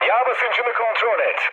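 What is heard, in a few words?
damaged oil, mood low, Turkish; speech; War Thunder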